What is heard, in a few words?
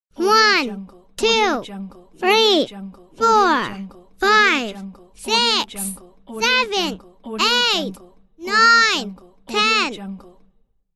young child counting one to ten